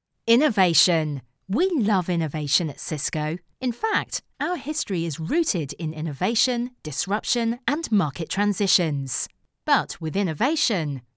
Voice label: Corporate

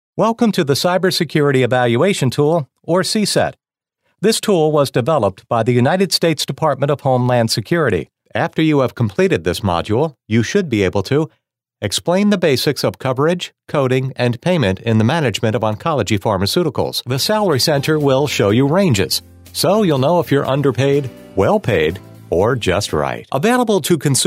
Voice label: eLearning

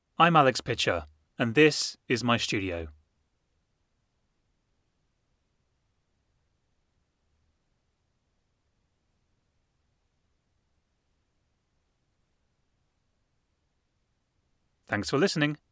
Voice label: Studio

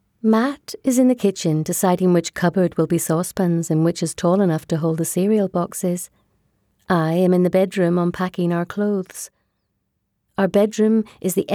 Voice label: Narration; Settling by Jan Carson

